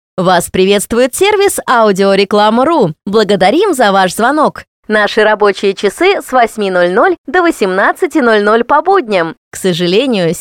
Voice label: female ivr